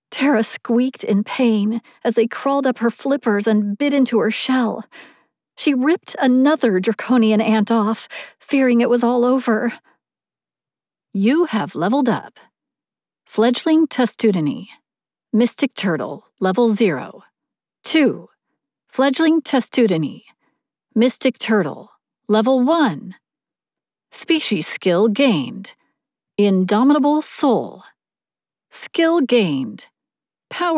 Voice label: LitRPG Progression Fantasy 3rd Person Narration and Stat Voice